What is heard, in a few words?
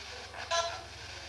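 hello, evp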